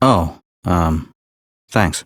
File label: Citizen Male, en; Citizens